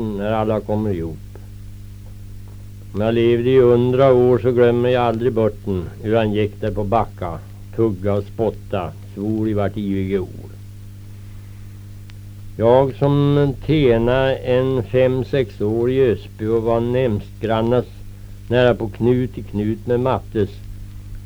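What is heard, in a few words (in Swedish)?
Riala Uppland, dialekter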